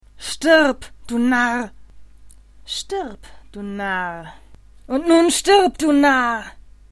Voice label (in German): Narr